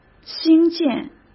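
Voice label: "兴建", Mandarin Chinese; hsk; chinese flashcards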